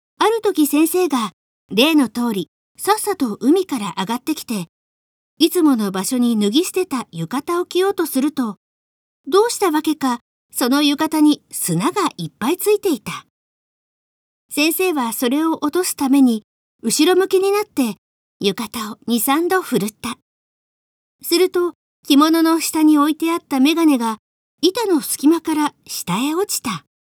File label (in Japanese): girl